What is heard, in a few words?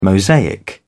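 "mosaic", British English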